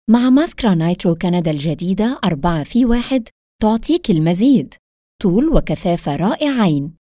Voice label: arabic female; dictor